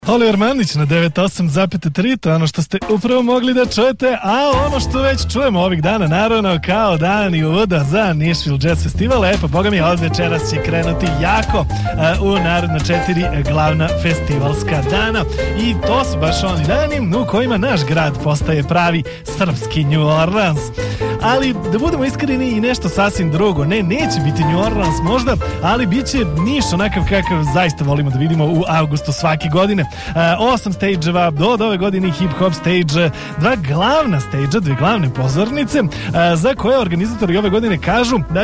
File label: Podcast; Nišville dobro veče